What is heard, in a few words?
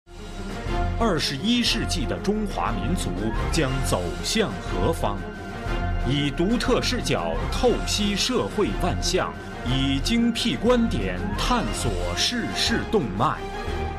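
Chinese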